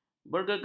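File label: "bergegar", Malay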